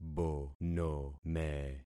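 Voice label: "bonome", concatenated syllables; Affixing experiment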